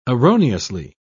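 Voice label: "erroneously", British English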